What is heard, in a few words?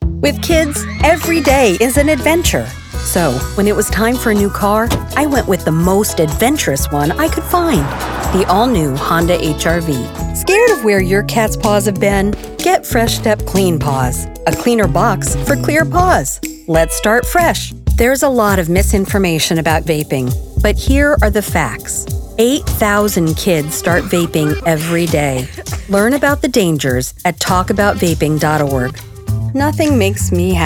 Commercial